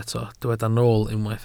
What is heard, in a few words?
"nool", Welsh